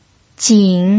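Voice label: "jin" third tone, pinyin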